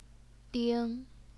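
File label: "ding", first tone, Chinese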